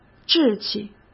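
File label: "志气" Mandarin Chinese; chinese flashcards; hsk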